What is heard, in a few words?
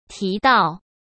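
"提到", Mandarin Chinese; chinese-flashcards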